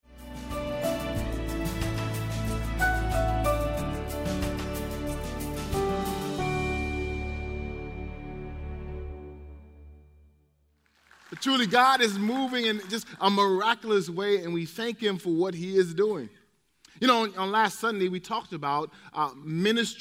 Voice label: English Sermon